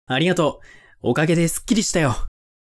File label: man